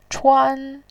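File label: "chuan", Mandarin Chinese, first tone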